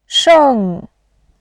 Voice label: "sheng4", voice

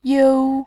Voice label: "you1", voice

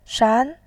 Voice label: "shan2", voice

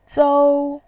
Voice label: "zou1", voice

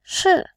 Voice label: "shi4", voice

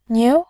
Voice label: "niu2", voice

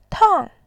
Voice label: "tang4", voice

